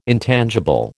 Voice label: "intangible", American